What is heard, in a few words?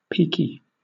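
"peaky" English, British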